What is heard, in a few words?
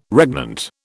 "regnant", British